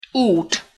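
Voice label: "ut", Hungarian pronunciation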